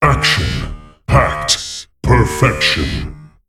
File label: Mech